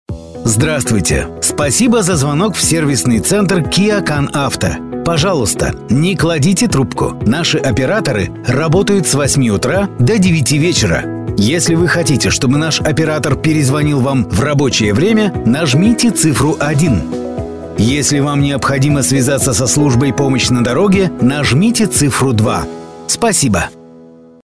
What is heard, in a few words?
IVR